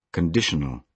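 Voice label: "conditional", British English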